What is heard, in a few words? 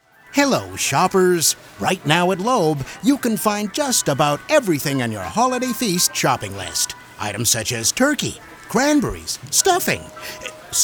Radio Spot; Loeb; Holiday Feast